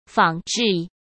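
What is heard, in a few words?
"仿制", Mandarin; chinese flashcards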